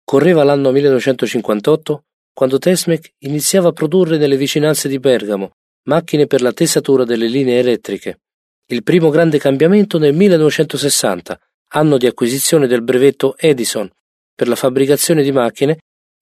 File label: italian male